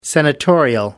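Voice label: "senatorial", English, British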